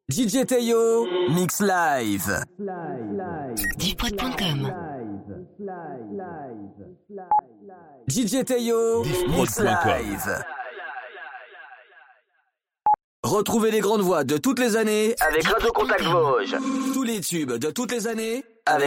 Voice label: Drops A cappellas, habillage

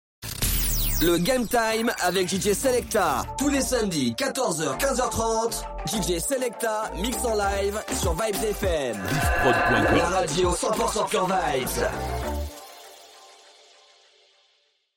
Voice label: Jingle Samedi Selekta Mix; Vybz Fm

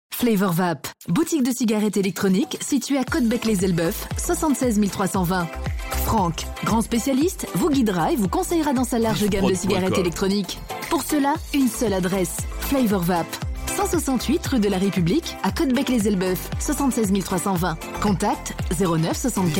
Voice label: Radio Spot Vape, publicite; Atomiik; Flavor

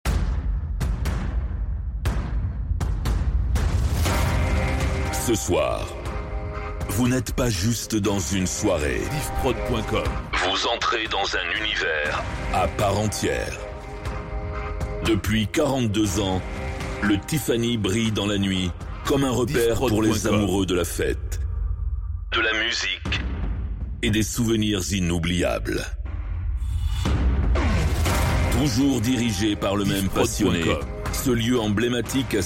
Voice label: Intro Discotheque Live, habillage; TiffanyLand

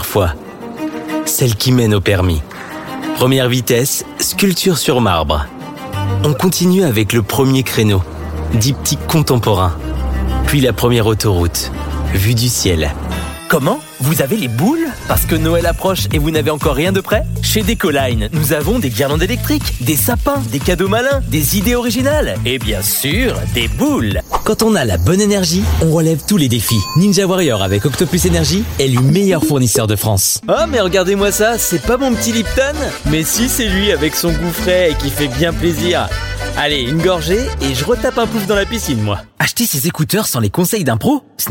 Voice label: VO Voix H

